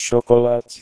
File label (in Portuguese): chocolate 120Hz garganta Normal